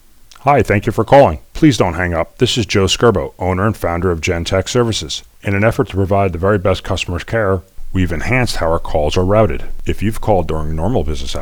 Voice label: ivr greeting